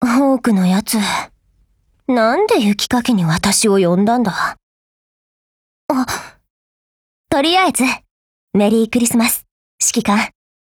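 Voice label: Japanese; NZ75; CHRISTMAS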